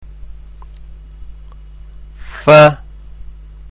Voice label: "Faa", arabic; arabic-malayalam; അക്ഷരമാല